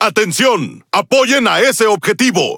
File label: Es Speech Quick Commands Ally Ship; World Of Warships